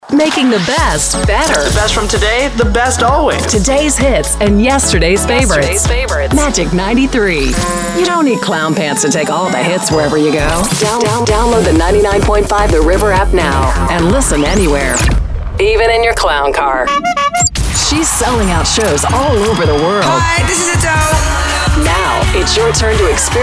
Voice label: Imaging